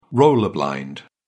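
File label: "roller blind", English, British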